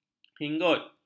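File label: "hinggut", Malay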